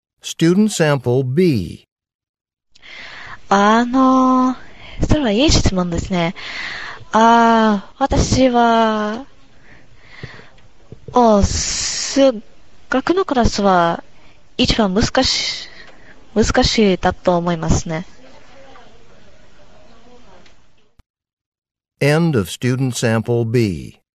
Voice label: japanese conversation; Conversation 2 Sample B (Score of 3)